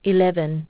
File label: aus, aussie prompts